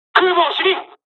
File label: dialogs japanese; us midway; War Thunder